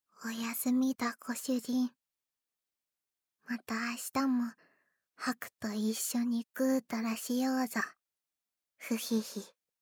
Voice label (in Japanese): シチュエーションボイス